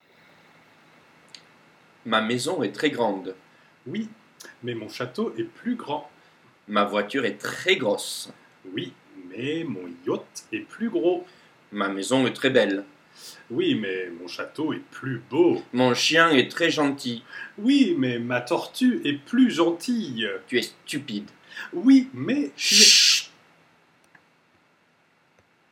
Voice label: dialogue adjectifs, French; masculine and feminine adjectives